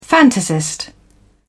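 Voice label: "fantasist", British English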